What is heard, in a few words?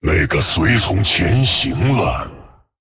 Male Dragon ERROR STEALTH; 攻击潜行随从; 那个随从潜行了。; 风暴巨龙迦拉克隆（英雄）; Can't target stealthed minion; That minion has Stealth.; zh